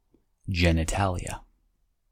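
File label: "genitalia", American English; pronunciations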